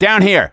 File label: misc directional, voice; gambler